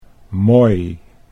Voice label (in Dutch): "moi", Dutch